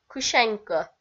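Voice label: "кысянькӧ", Komi, female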